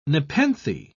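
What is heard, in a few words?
"nepenthe", British English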